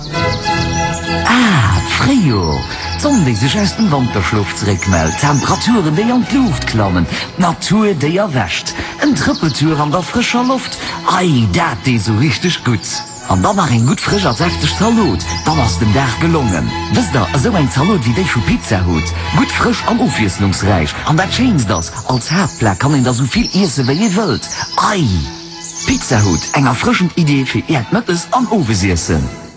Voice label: pub allemande; Pizza Hut

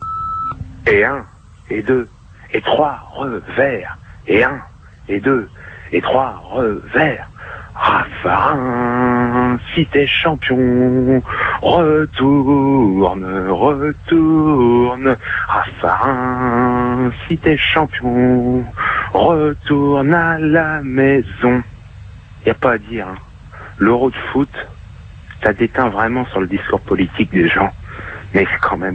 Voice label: Elections europeennes repondeur

